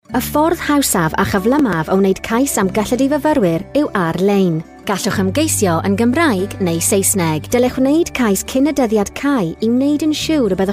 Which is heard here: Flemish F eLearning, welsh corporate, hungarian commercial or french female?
welsh corporate